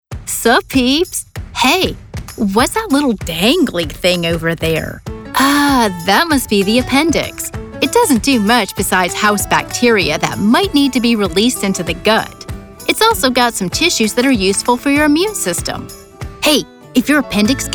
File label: Explainer